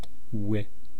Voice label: "wi", East Cree syllabics; language